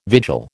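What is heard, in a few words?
"vigil", American English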